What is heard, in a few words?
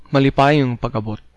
welcome, cebuano